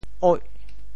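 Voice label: "oih8", Teochew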